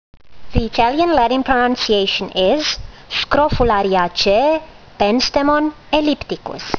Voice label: latin; Penstemon ellipticus